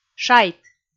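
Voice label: "шайт", Komi, female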